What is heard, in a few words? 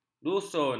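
"dusun", Malay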